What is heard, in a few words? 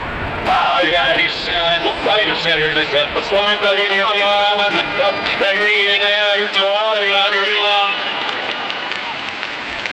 a mans voice comes out, stadium